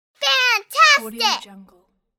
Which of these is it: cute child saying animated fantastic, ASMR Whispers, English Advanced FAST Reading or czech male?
cute child saying animated fantastic